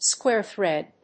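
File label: "square thread", English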